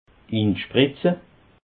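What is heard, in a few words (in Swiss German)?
"inspritze", Alsatian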